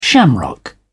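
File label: "shamrock", British English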